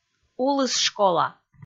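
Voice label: "улыс школа", Komi, female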